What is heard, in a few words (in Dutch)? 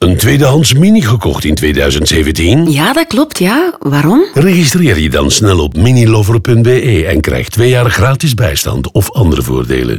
radio nl; mini; tweedehands; man; minilover